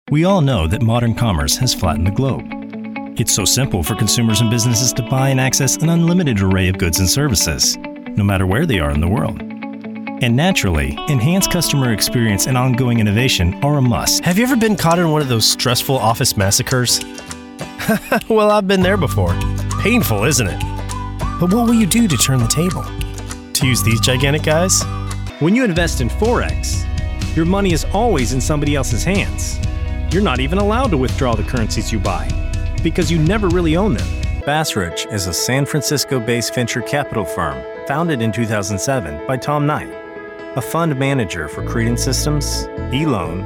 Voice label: 20s 30s Male Narration

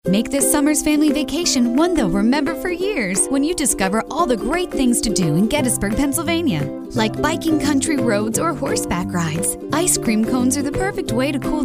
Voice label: 20s Female Commercial

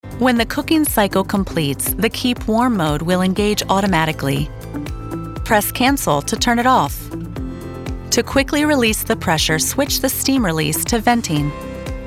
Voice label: 30s 40s Female Narration

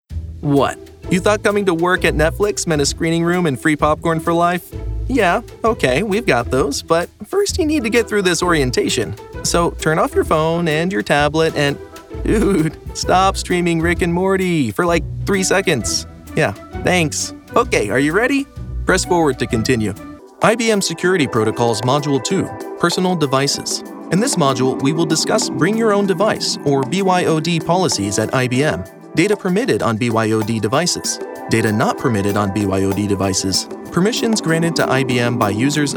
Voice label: 20s Male eLearning

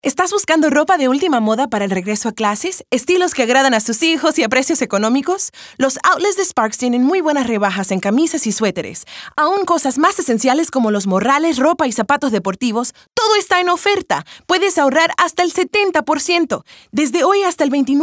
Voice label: 20s Female Spanish

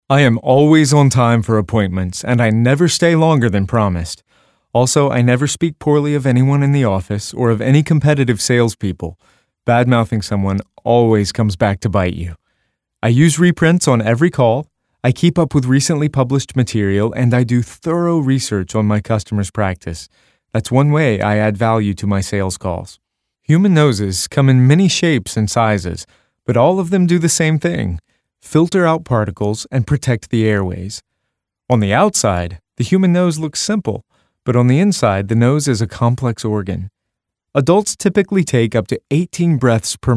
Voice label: 20s 30s Male Narration

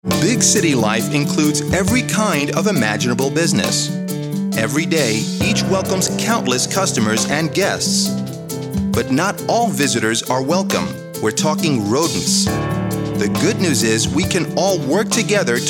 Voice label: 30s Male Spot Hispanic

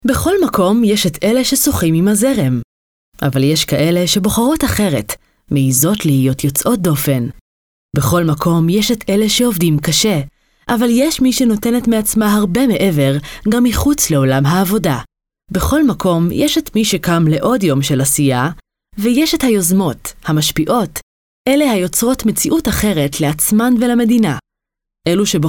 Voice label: 40s50s Female Hebrew Narration Tel Aviv Israel

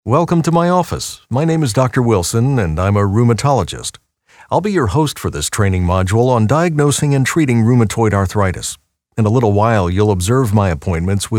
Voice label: eLearning Character

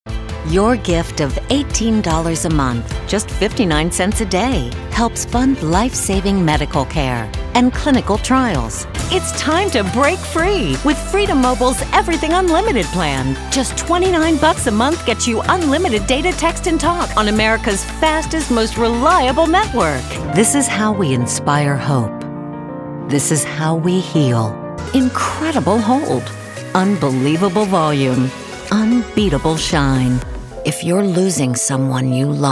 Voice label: 40s 50s Female Commercial

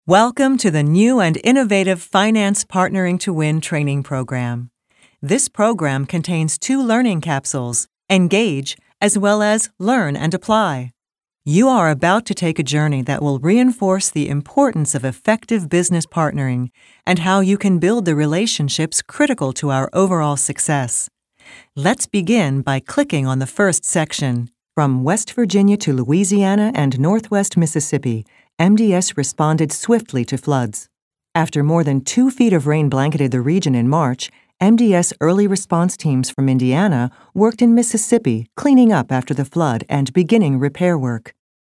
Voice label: 40s Female Narration

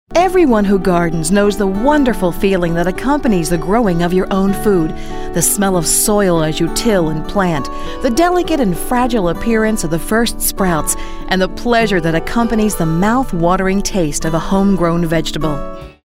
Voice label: 40s 50s Female

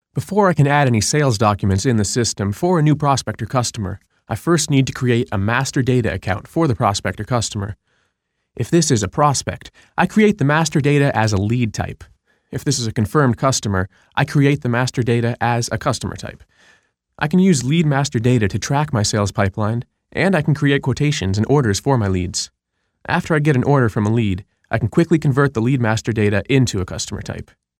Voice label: 20s Male eLearning

